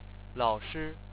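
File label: "lao3shi1", Chinese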